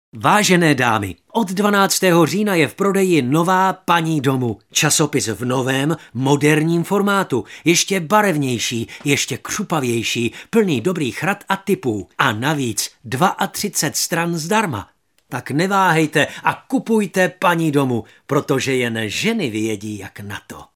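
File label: Male Czech commercial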